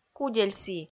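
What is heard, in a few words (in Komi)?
"кудель сі", Komi-Zyrian, female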